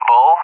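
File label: "bull", English speech; AWACS Messages; DCS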